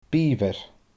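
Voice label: "biiobhair", Scottish Gaelic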